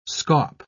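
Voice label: "scaup", British English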